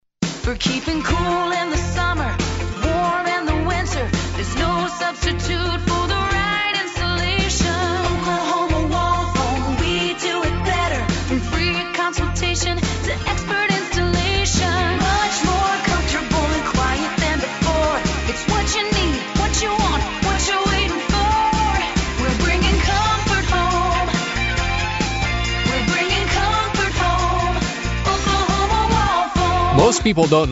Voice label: radio ad; ok wall foam